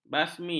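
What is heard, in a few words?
"basmi", Malay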